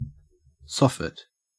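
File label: "soffit", Australian English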